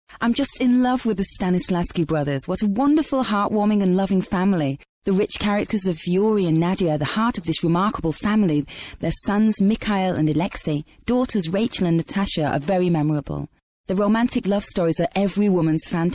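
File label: uk englisch, British English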